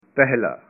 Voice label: first, punjabi